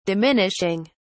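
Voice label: "diminishing", British English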